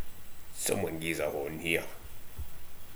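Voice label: "somewan", slang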